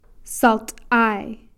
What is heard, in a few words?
"salt'ai", narrow